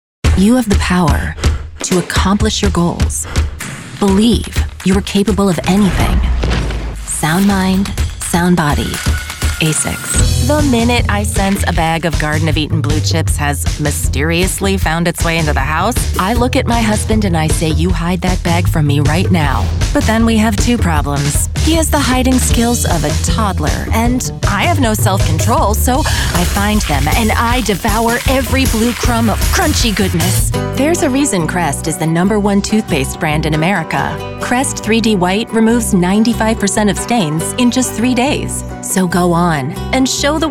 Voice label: women commercial